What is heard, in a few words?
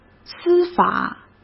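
"司法" Mandarin Chinese; hsk; chinese-flashcards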